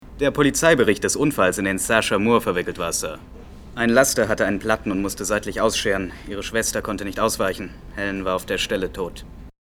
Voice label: synchron detektivserie; LOFT VOICES

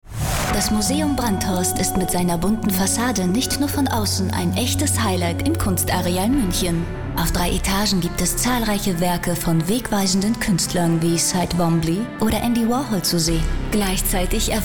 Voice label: Spot; Museum Brandhorst; LOFT VOICES

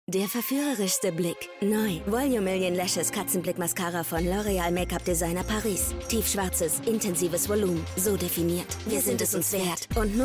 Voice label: Werbung tough; Katzenblickmascara; LOFT VOICES

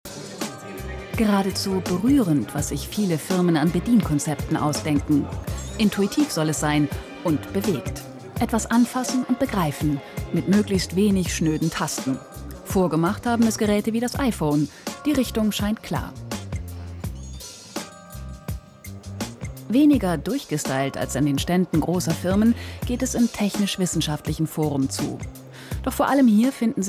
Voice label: magazin kommentar; 3sat

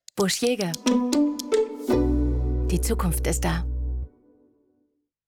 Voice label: TV Werbung; LOFT VOICES

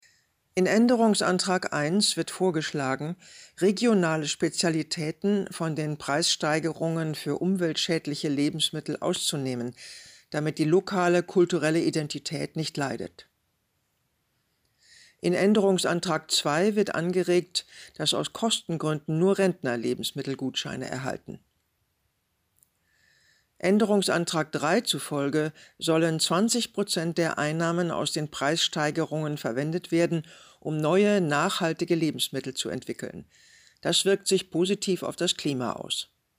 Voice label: DURCHSAGE; EU-Projekt ScreenTexts

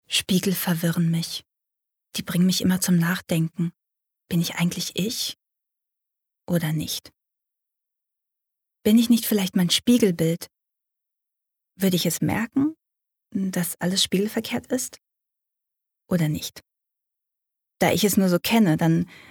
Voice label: Innerer Monolog

